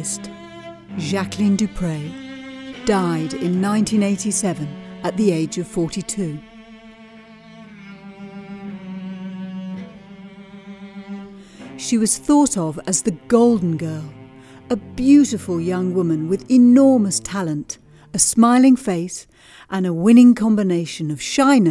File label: Narration Reel; The Cellist